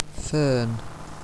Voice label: "fern", English phonetics